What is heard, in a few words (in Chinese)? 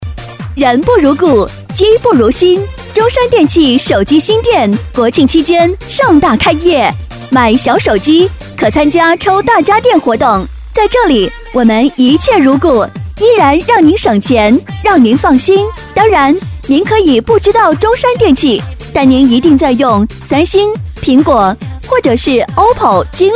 【促销】人不如故，“机”不如新